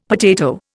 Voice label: "potato", Indian; Dictionary